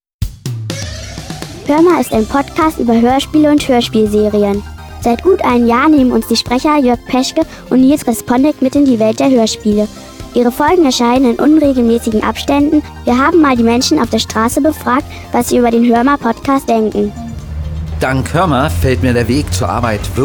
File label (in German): werbung; hoerma